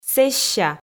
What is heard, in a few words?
"сэсся", Komi